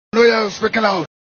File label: I no hear you speaking out comedy sound effect